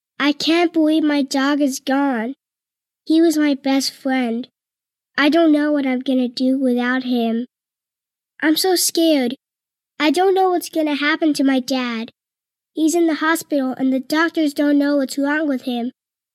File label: Drama Sad